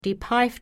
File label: "die Peif", Pennsylvania Dutch; p in put